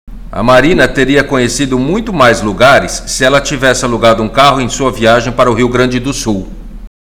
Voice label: Portuguese Listening; Portuguese Test